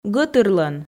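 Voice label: "гӧтырлӧн", Komi, female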